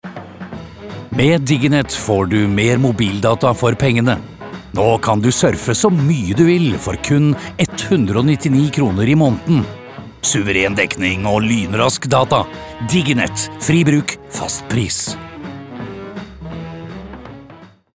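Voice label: Norwegian upbeat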